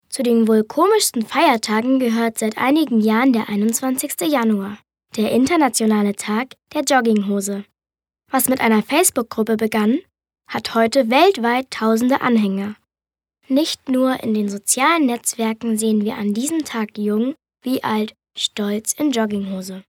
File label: Neutral; Feiertag